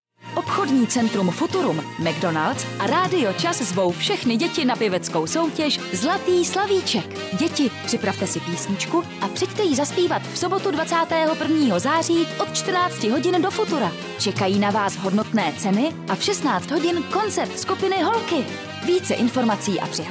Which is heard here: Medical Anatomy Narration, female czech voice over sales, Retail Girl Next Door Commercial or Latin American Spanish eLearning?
female czech voice over sales